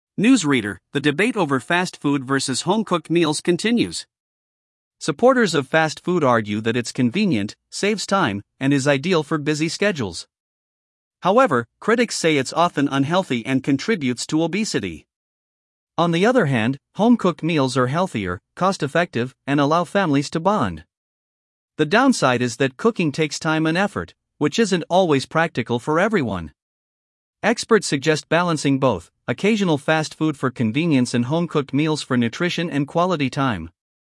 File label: news anchor; celpip practice test; listening practice test